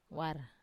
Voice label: "war", root, wamesa

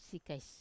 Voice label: "sikais", they are empty, wamesa

